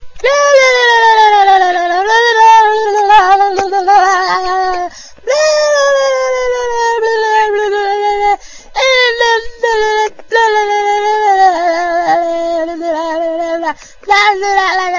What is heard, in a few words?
Random Blabbing